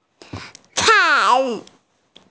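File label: "chai2", Mandarin Chinese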